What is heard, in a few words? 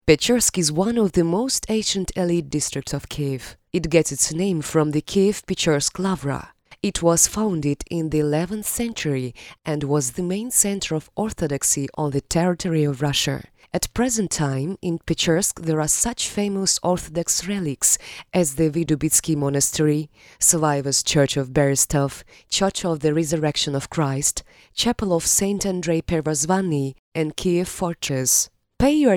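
povest English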